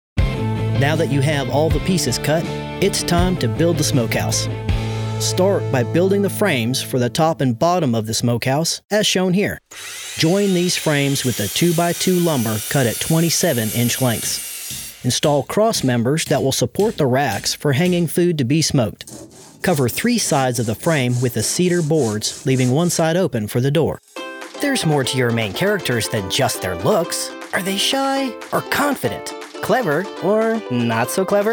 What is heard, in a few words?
narration